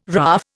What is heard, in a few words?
"RAAF", Indian